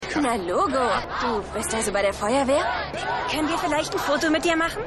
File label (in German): Junge Frau; Rescue Me